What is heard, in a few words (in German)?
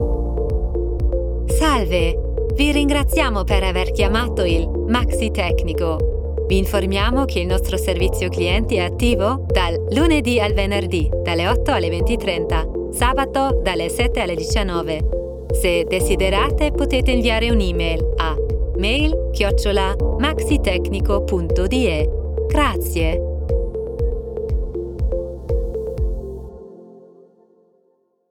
Telefonansage ital, Sprecherin